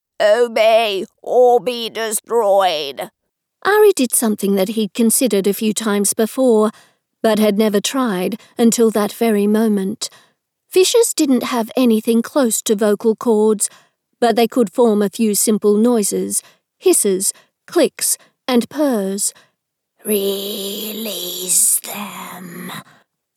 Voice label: Alien Villian Voices